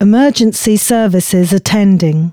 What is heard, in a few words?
announcements, female